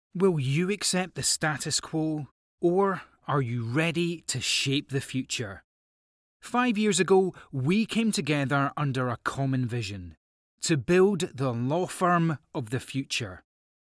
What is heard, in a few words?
Corporate pitch video, corporate voice over